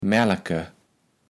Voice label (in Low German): "mälke", kaevels platt